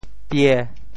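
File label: "pie1", Teochew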